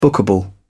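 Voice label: "bookable", British English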